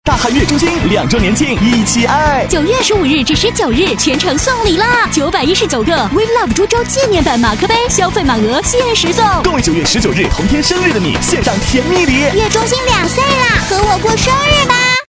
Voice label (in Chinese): 男国 男女对播广告 年轻